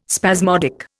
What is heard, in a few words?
"spasmodic", Indian